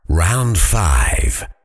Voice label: round 5, voiceover fighter; kenney

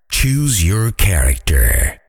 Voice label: "choose your character", voiceover fighter; kenney

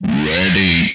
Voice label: "Ready", Speech; retro arcade

